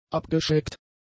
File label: "abgeschrägt", German; adjectives